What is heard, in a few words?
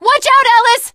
teengirl, voice; Left 4 Dead 2